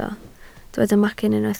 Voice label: "macyn", Welsh